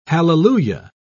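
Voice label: "halleluiah", British English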